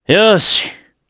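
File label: Vox Happy, Japanese; voices heroes jp; kingsraid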